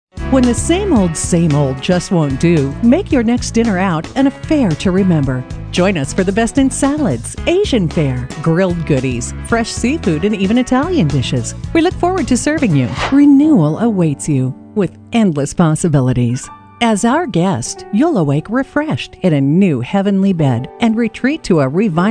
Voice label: female moh